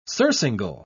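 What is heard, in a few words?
"surcingle", British English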